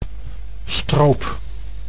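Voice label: "stroop", Dutch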